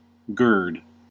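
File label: "gird", American English; pronunciations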